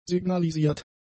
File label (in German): "signalisiert", German; adjectives